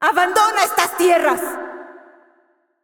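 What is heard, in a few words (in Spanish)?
assist, Mexican Spanish; VO; es MX; Irelia; bloom minigame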